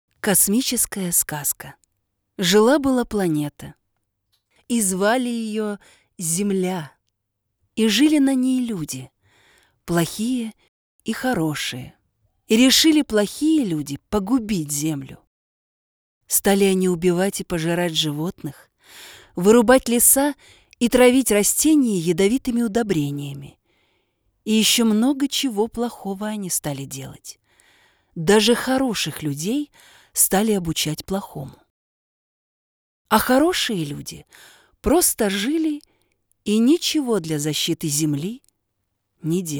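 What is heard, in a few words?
audiobook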